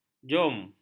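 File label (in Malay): "jum", Malay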